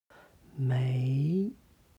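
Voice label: "mei", Chinese; 没; ない; HSK Level One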